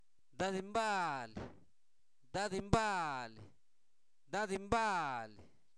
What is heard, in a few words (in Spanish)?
Zapoteco Albarradas, santo domingo albarradas; INALI; humano; padrino